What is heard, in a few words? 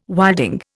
"wilding", Indian